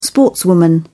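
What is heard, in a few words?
"sportswoman", British English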